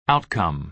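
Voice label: "outcome", American English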